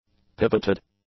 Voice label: "pivoted", english; adjectives